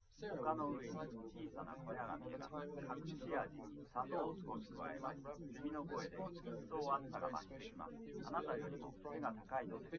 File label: test male liverec 250ms 5cm sim; SiSEC08; SiSEC underdetermined